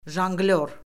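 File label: "jonqlyor", Azerbaijani; Orfoqrafiya Lüğəti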